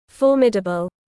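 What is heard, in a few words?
"Formidable", British English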